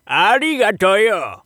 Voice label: oldman thanks; shop oldman

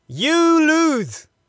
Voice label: Narration You Lose; ROUND

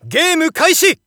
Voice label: Narration Game Start; Hanyou

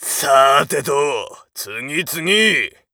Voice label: macho win, voice

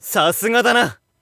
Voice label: Narration WIN; Hanyou

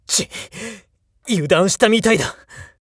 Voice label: jakigan win, voice

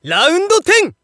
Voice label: Narration ROUND

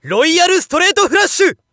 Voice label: Narration POKER ROYAL STRAIGHT FLUSH

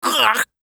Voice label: oldman damage, voice

